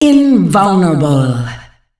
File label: Invulnerable, announcer female; Unreal Tournament; ut2004